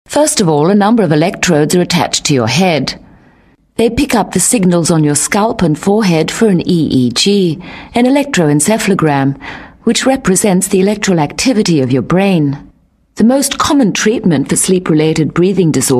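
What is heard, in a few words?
Australian elearning, englisch; sprecher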